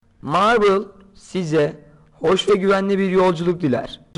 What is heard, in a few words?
Turkish; marble